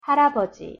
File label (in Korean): "할아버지" Korean; pronunciation